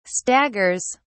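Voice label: "staggers", British English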